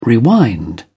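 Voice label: "rewind", British English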